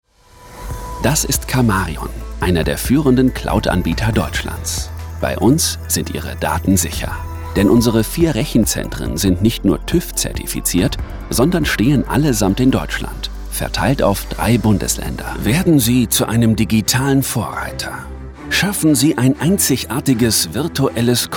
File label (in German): Kommentar Freundlich